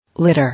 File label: "Litter", English